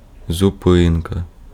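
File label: Ukrainian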